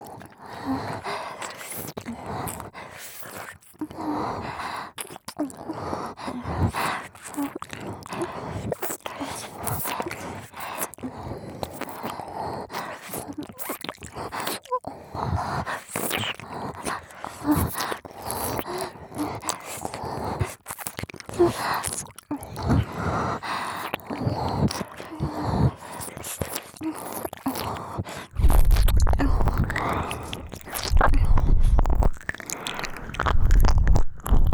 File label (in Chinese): 抽插MIX双耳, ASMR; 脑BUG